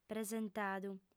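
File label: "presentadu", female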